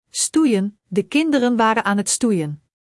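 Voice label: "stoeien", Dutch; Spelling Gr5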